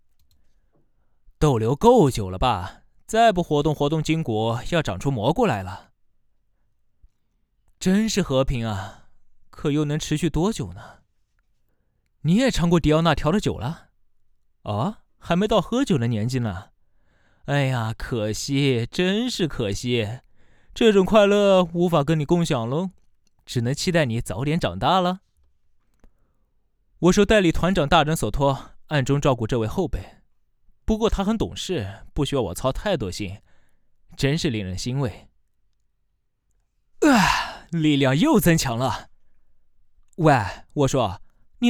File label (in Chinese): 游戏欢快青年